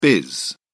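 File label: "biz", British English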